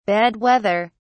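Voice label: "bad weather", British English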